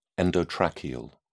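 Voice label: "endotracheal", British English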